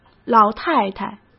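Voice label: 老太太, Mandarin Chinese; chinese flashcards; hsk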